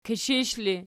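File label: "kishish" ergative, Archi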